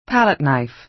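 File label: "palette knife", American English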